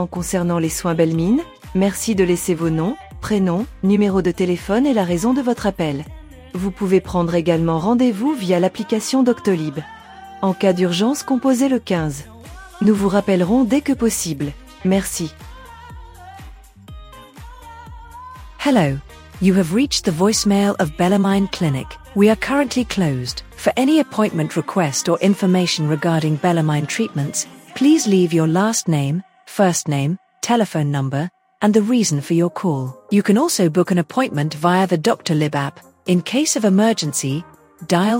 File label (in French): repondeur clinique; clinique Bellemine; voconix business voicemail message